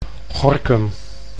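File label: "Gorinchem", Dutch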